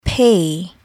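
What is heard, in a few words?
"pay", English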